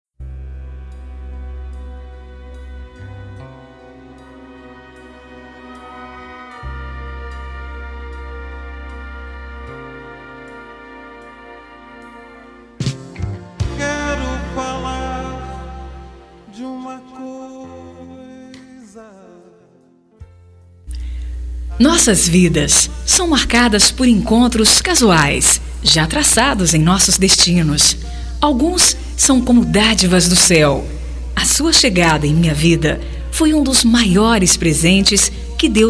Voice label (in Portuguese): Amizade Q Virou Paixão Feminino